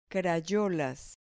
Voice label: "crayolas", Spanish